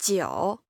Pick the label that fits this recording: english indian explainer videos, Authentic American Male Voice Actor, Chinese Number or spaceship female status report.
Chinese Number